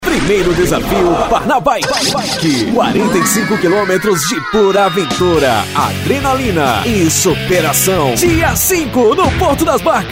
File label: spot evento